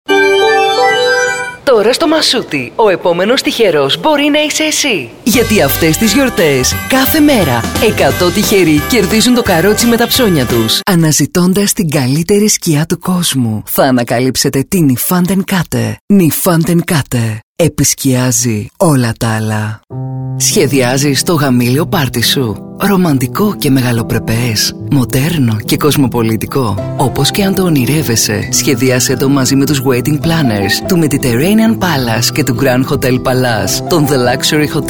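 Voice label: Greek, female, commercial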